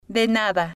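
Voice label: "de Nada", Spanish, female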